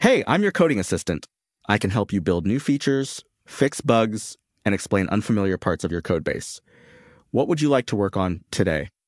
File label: neutral, voice; kevin; agents Voice